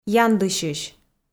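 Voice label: "яндысьысь", Komi, female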